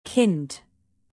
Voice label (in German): kind, native; alphabet de